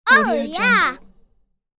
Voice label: little girl saying oh yeah; kazoomx